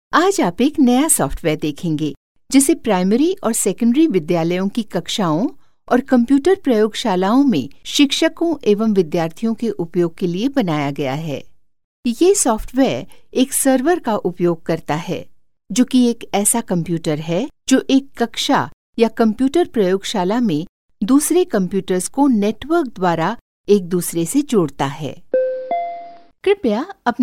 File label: Hindi Female